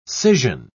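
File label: "scission", British English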